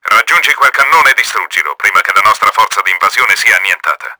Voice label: ATTACK WARSPITE, Italian; Battle Engine Aquila; XBOX

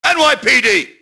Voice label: police alert; Max Payne

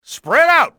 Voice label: spread out, english male; XBOX; enemy

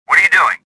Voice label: suspicious

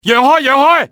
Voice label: "coming thru", male; XBOX; civ

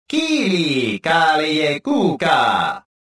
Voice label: detected, rodian

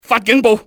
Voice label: sound the alarm, cantonese male; XBOX; enemy

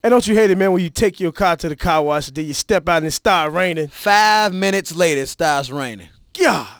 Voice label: Banter Weather Rain; Project Gotham Racing 2; radio; Power 92 Chicago

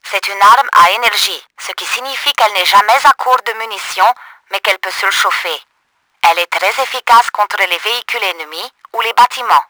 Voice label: tutorial pulse cannon, french; Battle Engine Aquila

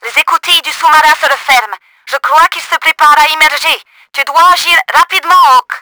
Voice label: SUB WARNING, french; Battle Engine Aquila; XBOX

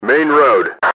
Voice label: main road, enemy english team orders; XBOX

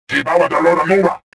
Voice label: anger

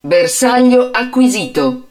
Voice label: HUD TARGET LOCKED, Italian; XBOX; BattleEngineAquila

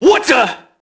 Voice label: mobster alert; Max Payne

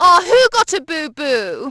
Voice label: booboo, Brit, Positive; Splashdown; XBOX

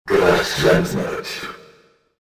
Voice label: russian; XBOX; ambience

